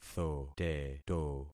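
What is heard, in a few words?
"thoteto", concatenated syllables; Affixing experiment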